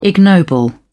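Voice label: "ignoble", English, British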